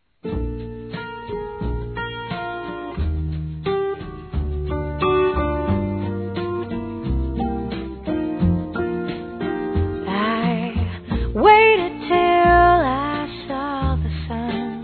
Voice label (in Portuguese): Saudade Romântica Masculino; Telemensagens; Saudades